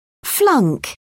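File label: "flunk", British English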